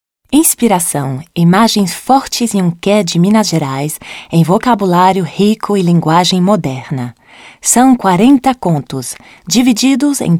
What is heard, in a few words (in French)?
Portugais; voix-off